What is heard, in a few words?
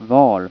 "var", Swedish